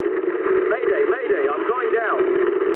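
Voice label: aircraft destroyed mood low, speech English; War Thunder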